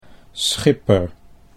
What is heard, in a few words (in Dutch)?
"schipper", Dutch